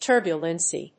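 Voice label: "turbulency", English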